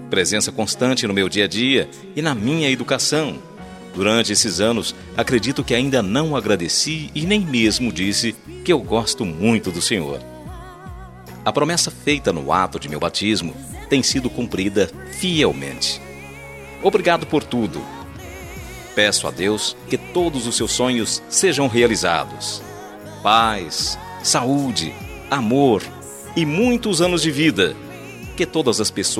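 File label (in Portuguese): ANIV PADRINHO male, Telemensagem Aniversário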